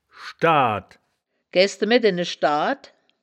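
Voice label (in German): "schdaadt", Wittlicher Wörterbuch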